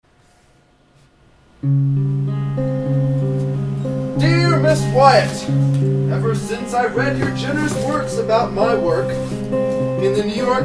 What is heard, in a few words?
dramatic reading